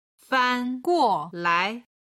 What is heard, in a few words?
"fanguolai", Chinese